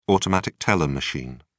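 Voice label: "automatic teller machine", English, British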